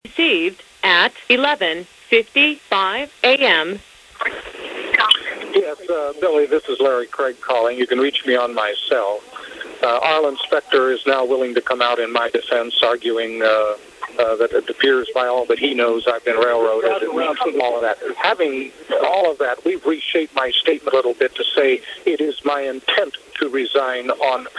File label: Voicemail; Larry craig